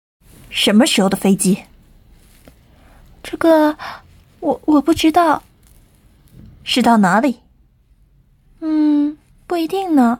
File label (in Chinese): 一段 广播剧